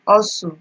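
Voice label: "hustle", Nigerian Pidgin, female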